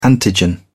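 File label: "antigen", British English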